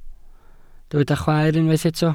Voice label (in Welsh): "chwaer", Welsh